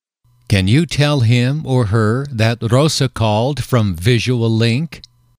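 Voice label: can you tell him her that X called from Y, male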